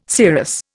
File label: "serous", Indian